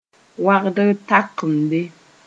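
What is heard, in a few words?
"wãgd-takdem", Mooré; long vowel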